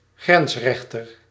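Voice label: "grensrechter", Dutch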